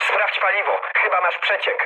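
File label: tank damaged mood med, speech Polish; War Thunder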